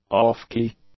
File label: "off-key", english; adjectives